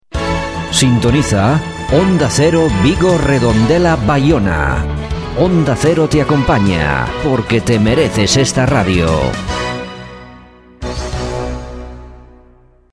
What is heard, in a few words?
jingle; onda cero; baiona